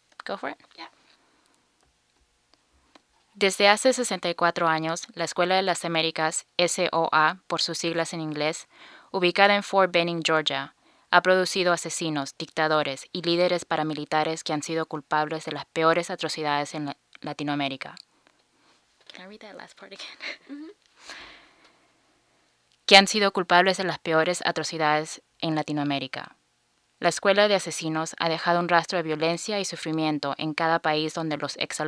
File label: spanish